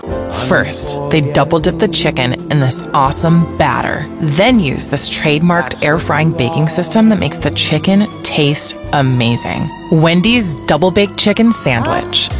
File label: English Voice Over